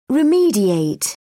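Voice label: "remediate", British English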